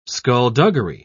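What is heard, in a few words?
"skullduggery", British English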